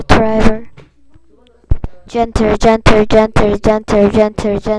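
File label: "gentle", spoken word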